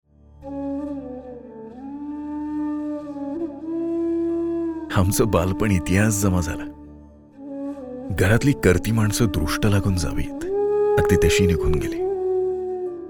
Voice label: Marathi Audiobook